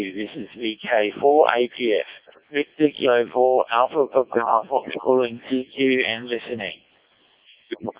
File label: decoded speech, 7177KHz